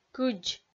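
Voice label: "кыдз" Komi, female; kpv audio dict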